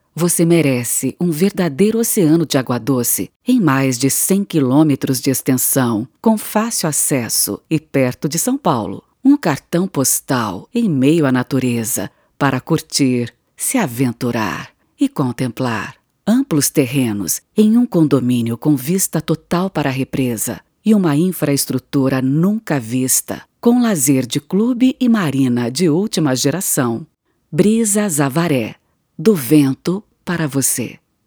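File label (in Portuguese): Feminino, Português - Brasileiro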